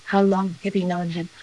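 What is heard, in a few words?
voice acting, es, female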